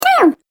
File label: Cartoon Game Voice "Down"; Cartoon Voice Sound Effects